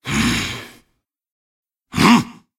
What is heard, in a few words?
cinematic voice; lineage2